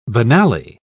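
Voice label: "banally", British English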